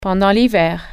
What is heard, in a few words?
during winter, french